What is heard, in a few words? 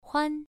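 "huan", Mandarin Chinese, first tone, pronunciation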